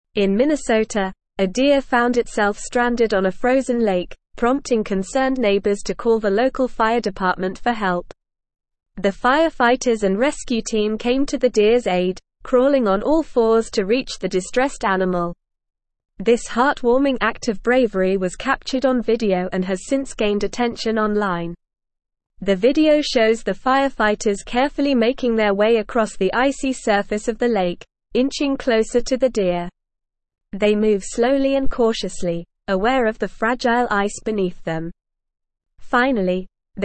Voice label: English Newsroom Advanced NORMAL Reading; Firefighters Rescue Stranded Deer on Frozen Lake